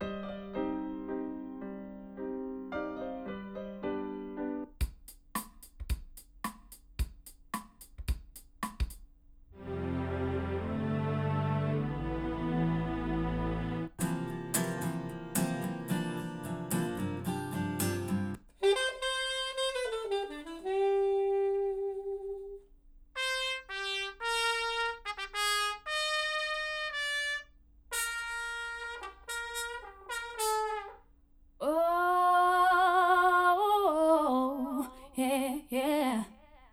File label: SCT-2000 omni